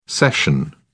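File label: "cession", British English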